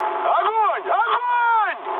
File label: attack position, mood high, speech ru; War Thunder